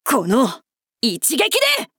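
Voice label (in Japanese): 「この一撃で！」, 男の子 王道系少年主人公 戦闘ボイス 攻撃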